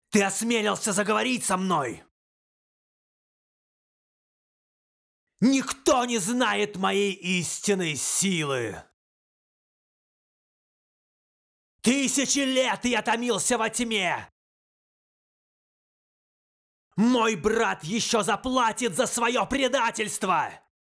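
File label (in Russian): тёмный эльф, Дикторы пародисты; parodist; comic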